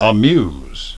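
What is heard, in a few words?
"amuse", English pronunciation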